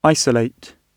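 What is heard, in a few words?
"isolate", British English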